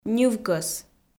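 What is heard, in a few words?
"ньывкӧс", Komi, female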